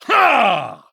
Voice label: Male Chess Piece Attack